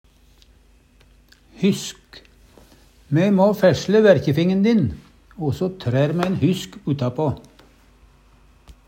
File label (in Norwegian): hysk, Numedalsmål; Me må fæsle verkjefingen din, osso trær me en hysk uttapå.